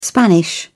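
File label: "spanish", English, British English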